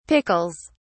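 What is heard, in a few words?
"pickles", British English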